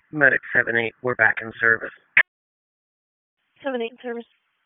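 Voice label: EMS Dispatch, p25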